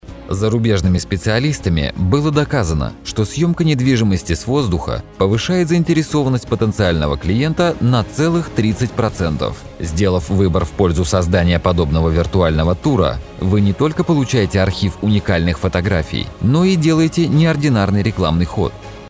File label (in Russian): golos prezentatsii